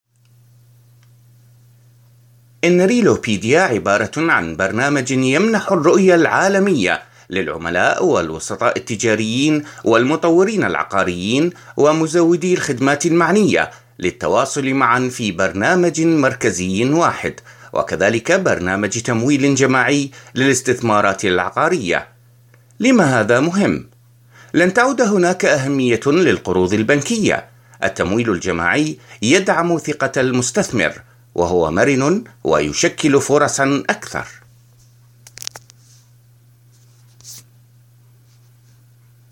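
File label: Arabic; Realopedia; GoLocalise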